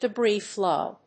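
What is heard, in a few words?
"Debris Flow", English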